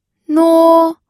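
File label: "nuo", first tone, pinyin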